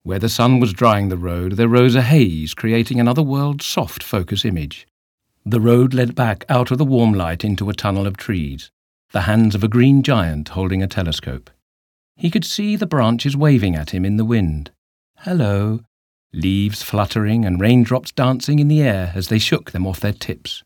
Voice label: Audiobook Short